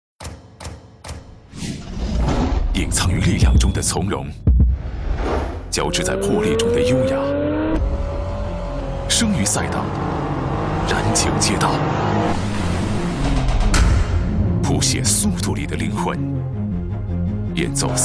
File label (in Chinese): 国语男 广告 汽车类; 阿尔法罗密欧